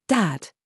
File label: DRESS TRAP, minimal pairs